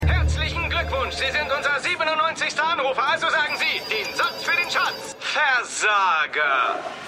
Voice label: Moderator

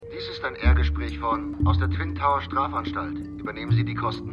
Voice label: Telefonstimme; The Lincoln Lawyer; Stimmproben; USA

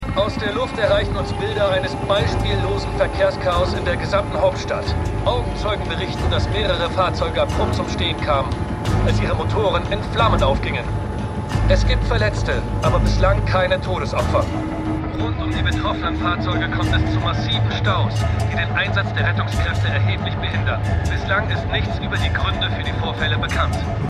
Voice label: Nachrichtensprecher; Slow Horses Ein Fall fuer Jackson Lamb

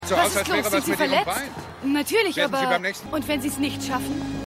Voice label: Reporterin; The Outer Limits

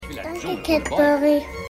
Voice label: Kleines Kind; Richie

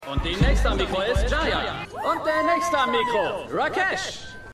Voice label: Karaoke Ansager; God Friended Me

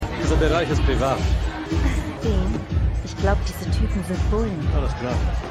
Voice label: Wachmann Frau Bei Dean; Law & Order

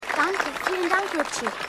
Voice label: Maedchen Bei Fabrik

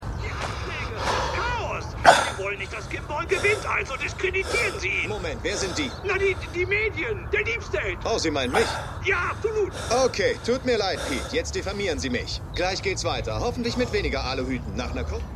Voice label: Anrufer, Radiomoderator; Slow Horses Ein Fall fuer Jackson Lamb; Anrufer Pete

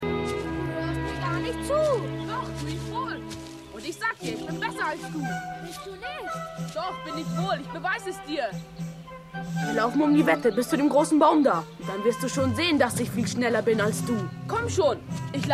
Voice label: Junge; Hercules

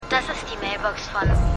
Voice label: Mailbox, Stimmproben; Scandal

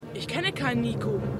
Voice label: Junge; Hercules

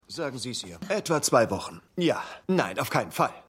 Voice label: Spanischer Krankenhausarzt

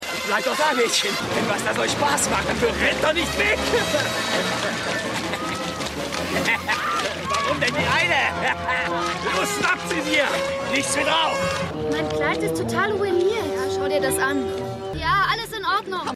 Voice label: Verfolger Junge Frauen; Stimmproben; USA

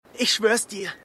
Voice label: Junge; Stimmproben; USA

